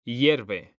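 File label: "hierve", Spanish, male; mekanta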